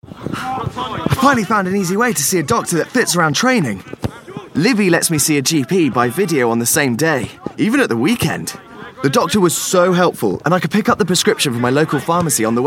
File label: radio ad; livi health app